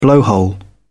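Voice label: "blowhole", British English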